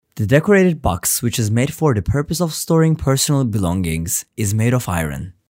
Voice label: english